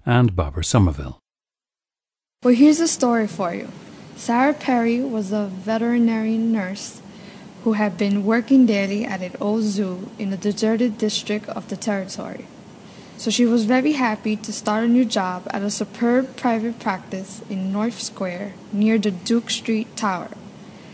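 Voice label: new york, International Dialects of English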